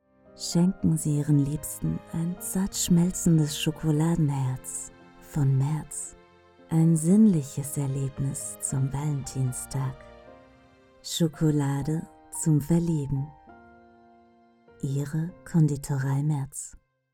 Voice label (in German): Werbung Schockolade, Sprecherin